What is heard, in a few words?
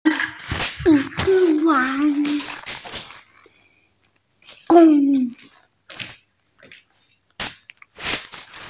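"gong yuan" Chinese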